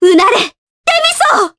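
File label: Skill, Japanese; Ophelia; kingsraid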